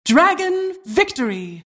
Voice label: announcer victory

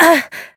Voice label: pain; Dizzy

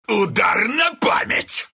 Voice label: attack, ru; Tusk; superpunch; Dota 2

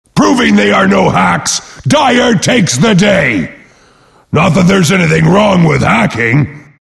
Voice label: announcer victory; Axe; dire

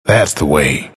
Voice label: announcer ally; bastion

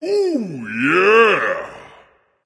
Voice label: Emote Dragon; Griffindwarf; Fafnir; Smite

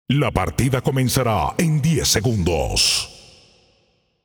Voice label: Announcer Match Begin

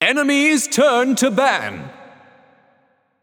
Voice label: Announcer Town Crier Draft Enemy Ban

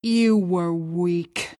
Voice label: ability scream of pain; queen of pain

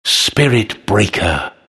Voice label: announcer; Dota 2; trine announcer; spiritbreaker

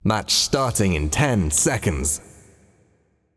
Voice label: Announcer Vox Match Begin; Paladins

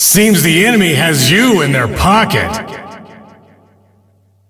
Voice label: Announcer; Awesomenauts; Ringmaster

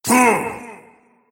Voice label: void spirit pain

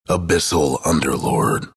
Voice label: announcer pick; Bastion

